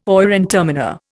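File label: "oyer and terminer", Indian English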